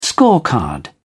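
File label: "scorecard", British English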